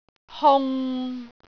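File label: "hung6", Cantonese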